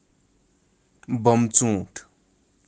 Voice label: "Bamtsunt", kashmiri